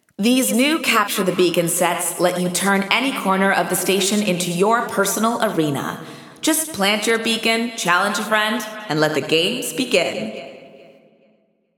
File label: Announcements; CTB Available; GAIA